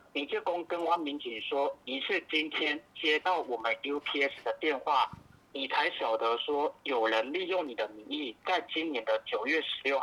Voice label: male; UPS